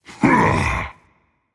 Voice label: rage; chaac